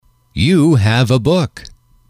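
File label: you have a book, male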